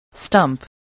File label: "stump", spelling